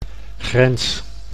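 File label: "grens", Dutch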